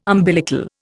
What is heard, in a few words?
"umbilical", Indian